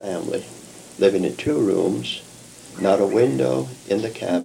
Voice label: Oral history with 71 year old male Kentucky, american english dialect